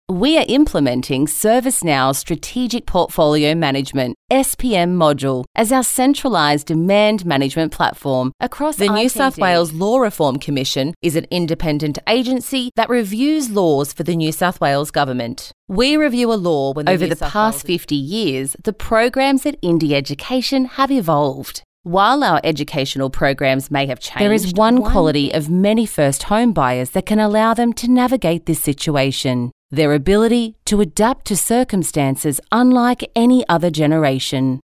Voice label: Narration, voice-overs